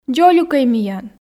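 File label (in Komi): дзолюкӧй миян, Komi, female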